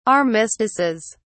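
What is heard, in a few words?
"armistices", British English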